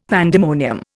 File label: "pandemonium", Indian; Dictionary